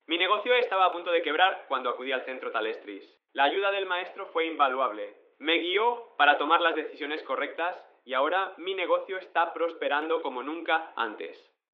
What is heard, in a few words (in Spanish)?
hombre españa